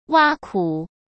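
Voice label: "挖苦", Mandarin Chinese; hsk; chinese flashcards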